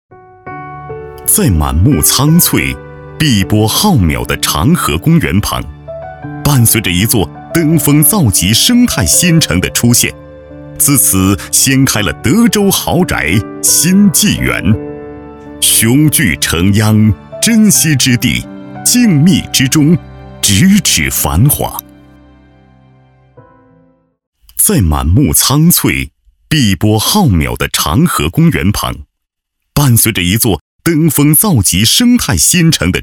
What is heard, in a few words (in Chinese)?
高端 男 企业 宣传 地产 大气 温暖